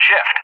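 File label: "SHIFT" English, speech; JTAC; DCS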